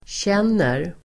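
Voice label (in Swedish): "känner", Swedish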